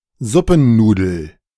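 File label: "Suppennudel", German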